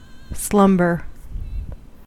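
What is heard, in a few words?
"slumber", American English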